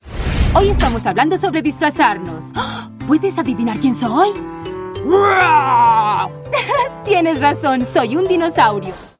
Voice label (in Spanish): Personaje KIDS; PBS